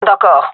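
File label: agree, female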